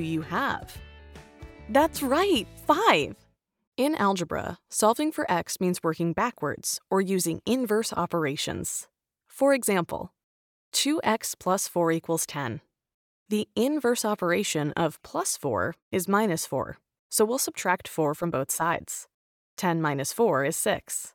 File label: eLearning Math; Voice Actor